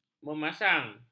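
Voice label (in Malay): "memasang", Malay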